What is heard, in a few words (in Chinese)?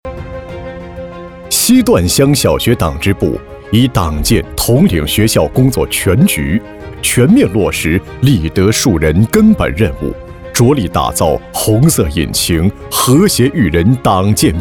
男 学校专题 小学党支部; 西段乡小学党支部